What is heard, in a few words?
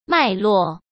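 "脉络", Mandarin Chinese; hsk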